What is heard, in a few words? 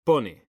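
"pone", Spanish, male; mekanta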